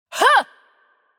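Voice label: Attack Heavy, Chinese; bloom minigame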